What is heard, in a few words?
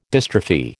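"dystrophy", American English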